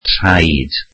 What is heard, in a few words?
welsh feet